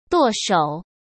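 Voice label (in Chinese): "舵手", Mandarin; chinese flashcards; hsk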